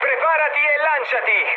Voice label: bailout, mood med, speech it; War Thunder

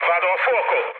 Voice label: on fire, mood low, speech it; War Thunder

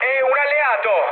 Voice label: player friendly fire, mood low, speech it

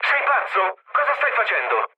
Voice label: player killed ally, mood low, speech it

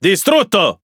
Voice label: Speech Pilots Fighter Destroy, IT; World Of Warships

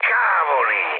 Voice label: trick, mood med, speech it; War Thunder

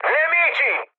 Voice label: enemy detected, mood med, speech it; War Thunder

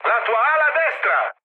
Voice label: right wing, mood med, speech it; War Thunder

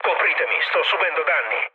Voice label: aircraft damaged, mood low, speech it

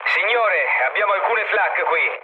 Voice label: damaged aa, mood low, speech it; War Thunder

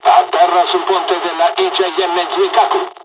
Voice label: landing, dialogs italian; War Thunder

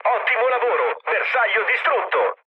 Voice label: bomb success, mood low, speech it; War Thunder

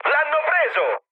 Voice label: aircraft destroyed, mood med, speech it; War Thunder

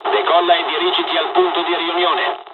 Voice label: takeoff, dialogs italian; midway us; War Thunder

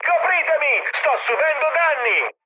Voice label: aircraft damaged, mood high, speech it

